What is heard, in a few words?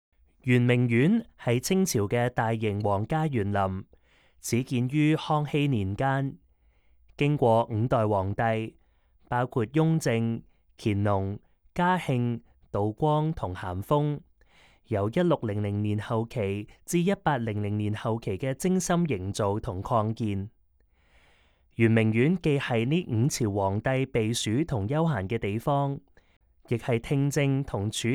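Cantonese, audio guide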